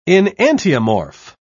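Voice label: "enantiomorph", British English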